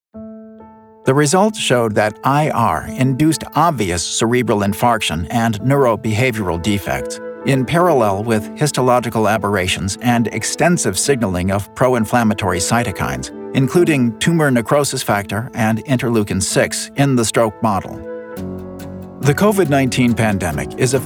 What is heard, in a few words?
MEDICAL NARRATION